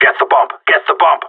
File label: hurry bomb, radio; GSG9